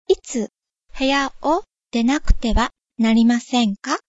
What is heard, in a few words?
vacate room, japanese